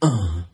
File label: "uh", male